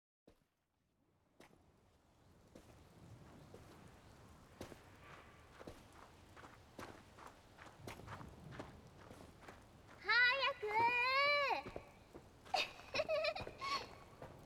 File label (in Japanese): 幼馴染ちゃんASMR